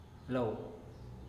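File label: lou 'tu rostro', Teotitlán del Valle Zapotec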